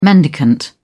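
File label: "mendicant", British English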